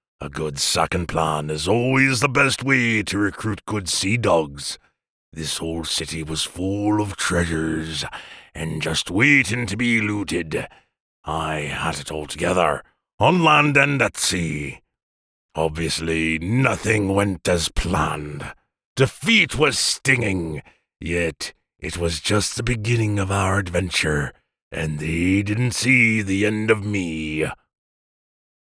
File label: Pirate